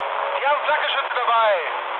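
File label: German damaged aa mood med, speech; War Thunder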